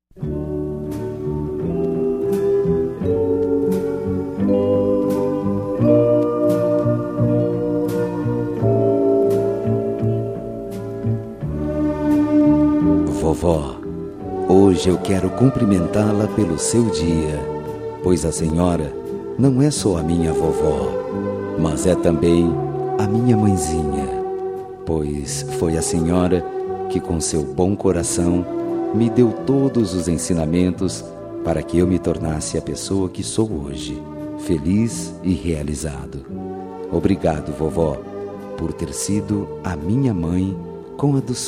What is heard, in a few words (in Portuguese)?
Dia das Maes pra Avo, M